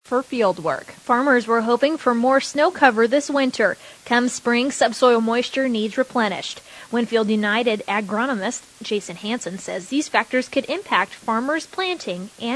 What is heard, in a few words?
air check; WinField United